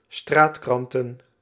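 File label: "straatkranten", Dutch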